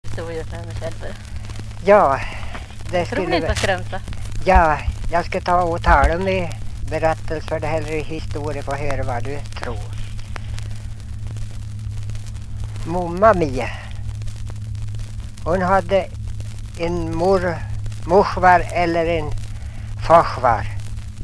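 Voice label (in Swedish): Ljustorp Medelpad, dialekter; Folkminnen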